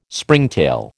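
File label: "Springtail", American English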